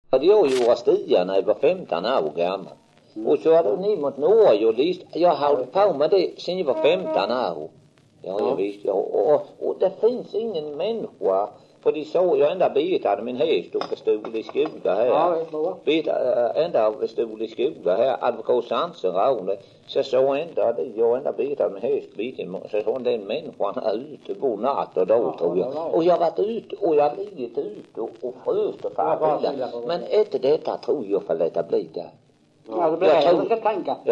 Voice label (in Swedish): dialekter; Folkminnen; Svenska sagor